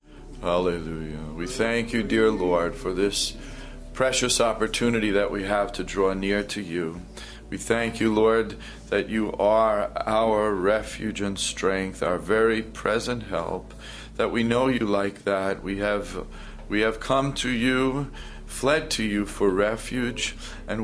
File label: Prayer, Worship